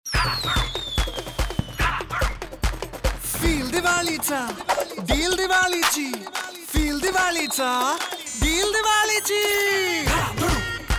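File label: LOW Pitch Marathi, voice-over; AMAZON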